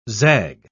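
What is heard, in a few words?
"zag", British English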